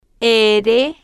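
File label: "r", spanish, female, slow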